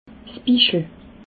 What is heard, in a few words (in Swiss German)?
"bischele", alsace